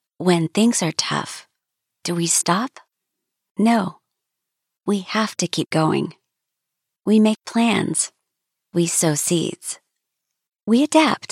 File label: English American narration